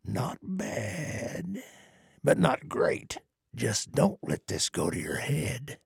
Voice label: trader male neutral quest complete

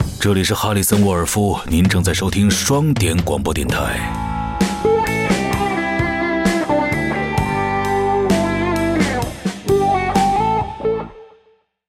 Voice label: Mandarin; 哈里森（双点校园）; 开场白

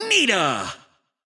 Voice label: intense; Nita

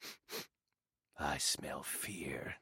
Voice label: male hate sense

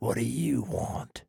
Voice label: trader male dislike greeting

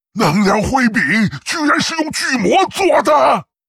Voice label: Witch doctor pissed

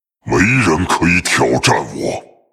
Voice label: morphed warcry zh; Illidan

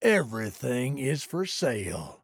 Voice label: trader male neutral greeting

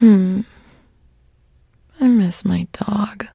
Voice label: trader sad; 商人杰恩（七日杀）

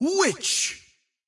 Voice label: Witch normal

